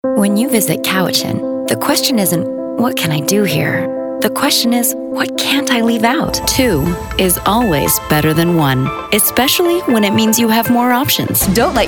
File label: VO Commercial